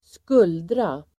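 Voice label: "skuldra", Swedish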